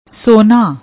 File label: "ਸੋਨਾ", Punjabi